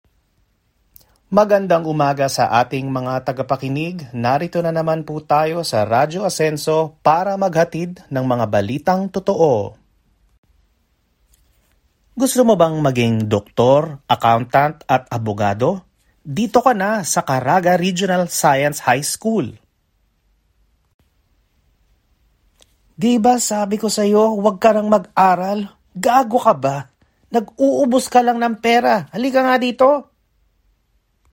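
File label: Tagalog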